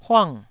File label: "huang4", taiwanese